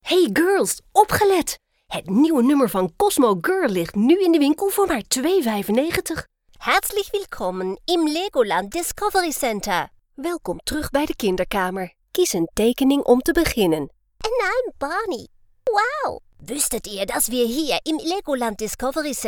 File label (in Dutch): compilatie ne du eng kinderstem